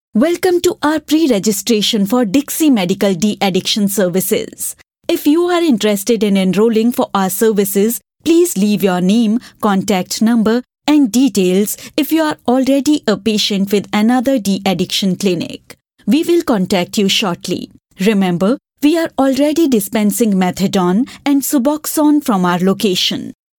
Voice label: Female, English, India

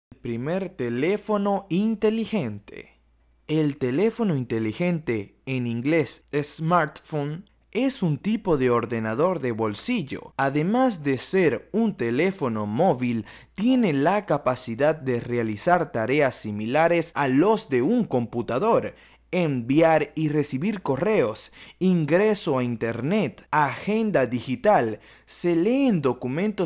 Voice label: Spanish (LA), Male

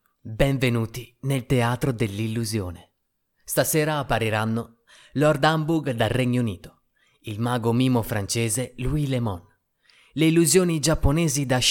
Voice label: Italian, Male

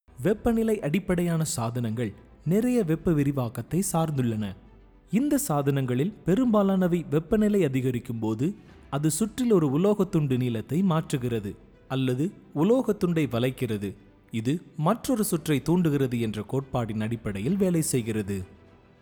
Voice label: Tamil, Male

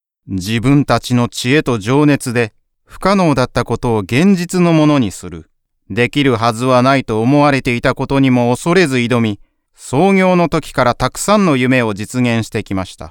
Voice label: Japanese, Male

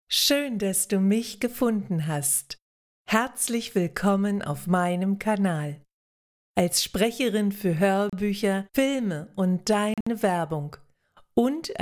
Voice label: German, Female

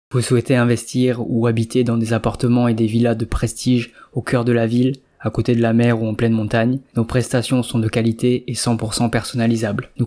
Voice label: French, Male

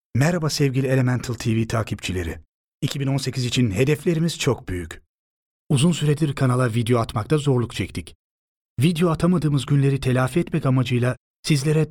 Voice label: Turkish, Male; TR

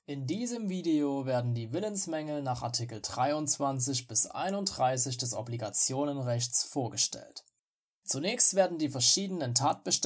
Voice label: German, Male

